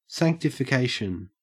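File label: "sanctification", Australian English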